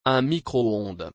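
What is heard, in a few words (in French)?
"un microondes", French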